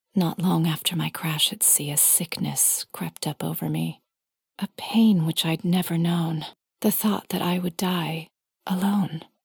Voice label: Poetry - Pain